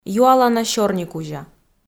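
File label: "юалана сёрникузя", Komi, female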